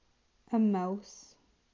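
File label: "A mouse", English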